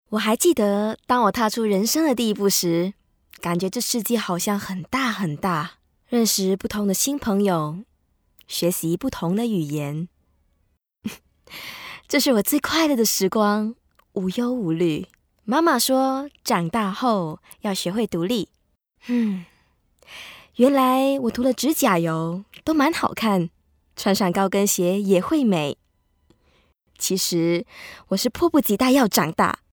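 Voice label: Mand Char, Mandarin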